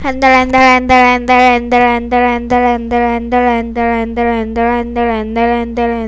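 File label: "handle", spoken word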